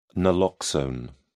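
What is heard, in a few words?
"naloxone", British English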